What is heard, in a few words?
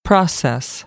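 "process", American English; AmericanBritish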